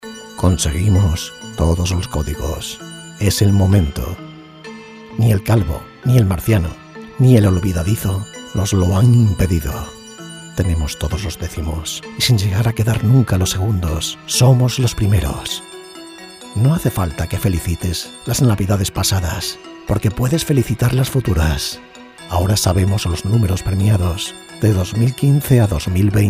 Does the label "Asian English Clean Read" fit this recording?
No